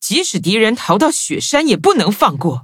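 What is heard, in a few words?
loading, Traditional Chinese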